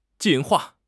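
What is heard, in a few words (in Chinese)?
"进化", Chinese; HSK6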